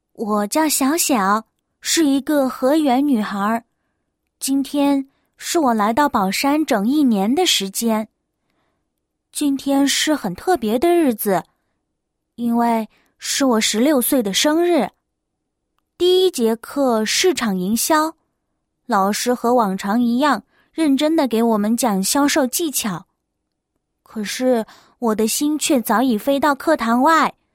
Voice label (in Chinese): 女-自白-学生校园生活自白